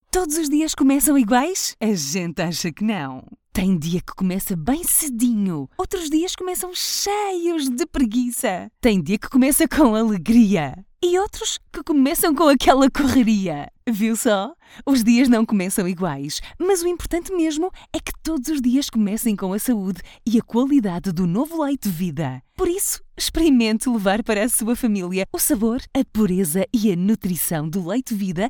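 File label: Portuguese, female, african accent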